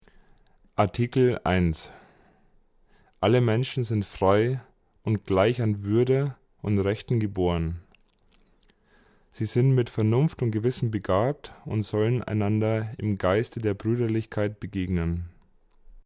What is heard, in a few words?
German